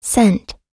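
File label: "sent", American English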